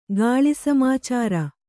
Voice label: "ಗಾಳಿಸಮಾಚಾರ", kannada

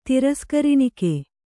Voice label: "ತಿರಸ್ಕರಿಣಿಕೆ", kannada

